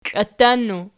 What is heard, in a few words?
"klatannu", Archi